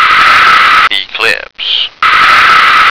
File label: "eclipse", American